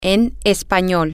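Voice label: in language, spanish, female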